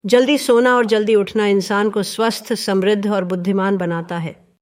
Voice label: Hindi; "जल्दी सोना और जल्दी उठना इंसान को स्वस्थ ,समृद्ध और बुद्धिमान बनाता है."; Text To Voice; DeepVoice AI